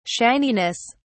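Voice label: "shininess", British English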